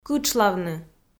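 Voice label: "кытшлавны", Komi, female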